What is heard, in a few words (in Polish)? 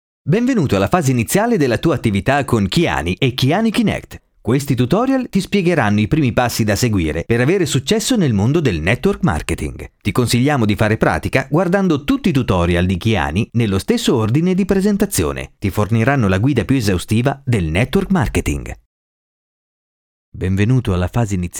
Wloski